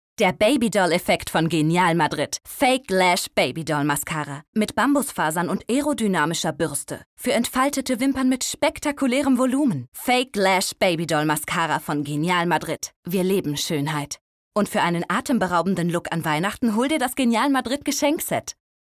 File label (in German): Frau OFF